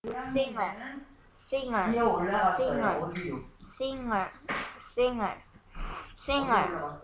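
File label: "singer", spoken word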